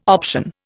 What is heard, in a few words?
"option", Indian